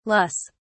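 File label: "LUS", British English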